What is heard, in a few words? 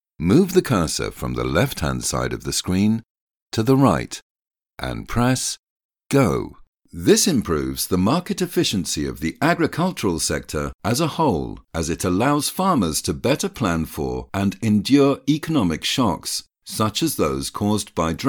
e-learning